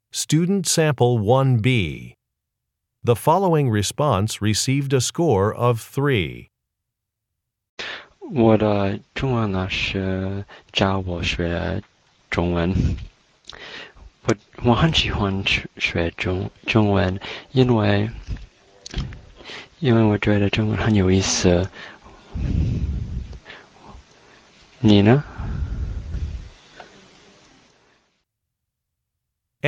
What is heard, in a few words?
chinese conversation